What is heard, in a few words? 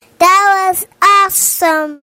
"Awesome", Child Saying